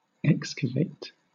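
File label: "excavate", British English; pronunciations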